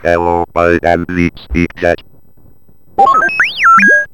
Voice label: Speak Jet Talking